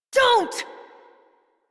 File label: Adult, en, Dialog